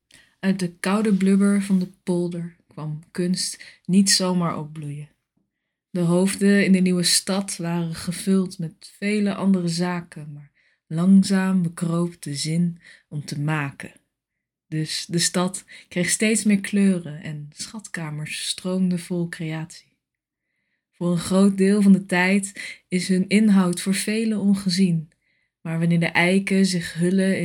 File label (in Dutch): Voorgedragen gedicht; Tour of Art; jubileumgedicht